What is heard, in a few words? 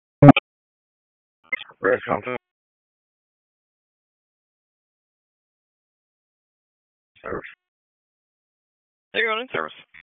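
EMS dispatch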